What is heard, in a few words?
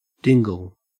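"dingle", Australian English; pronunciations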